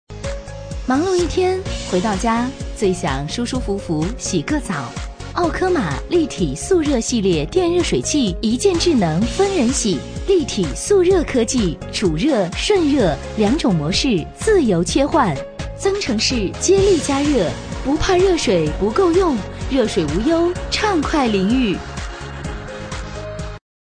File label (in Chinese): 女 品质广告 热水器; 澳柯玛